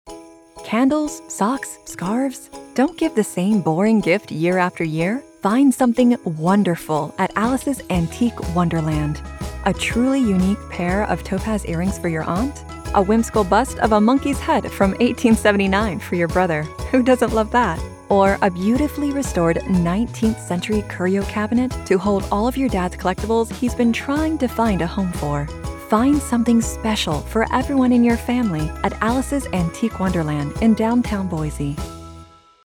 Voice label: Radio; Alice Antique Wonderland; Female; English (North American)